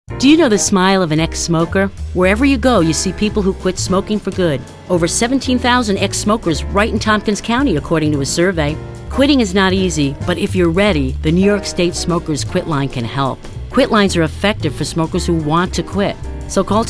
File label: radio female